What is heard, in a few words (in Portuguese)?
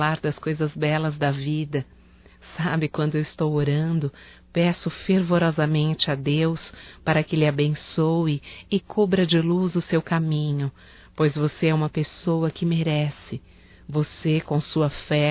NATAL IRMA (F); Natal e ano novo; fem; Telemensagens 2017